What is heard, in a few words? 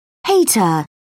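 "hater", English, uk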